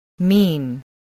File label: "mean", spelling voice